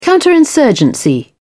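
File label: "counterinsurgency", British English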